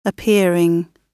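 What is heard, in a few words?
"appearing", British English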